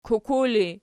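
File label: "kuku", ergative, Archi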